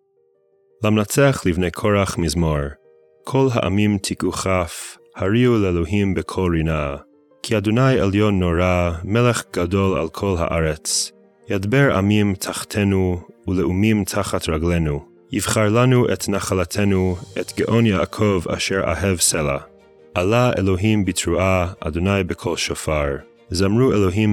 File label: poetry and prayer psalm hebrew